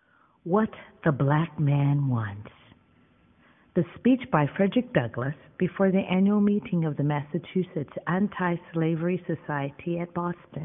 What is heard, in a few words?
reading